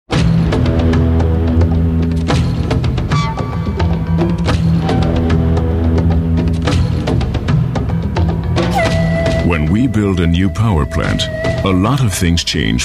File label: EngUS Werbung Neutral